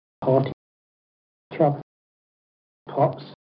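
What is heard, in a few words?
kent warren st male lot, accents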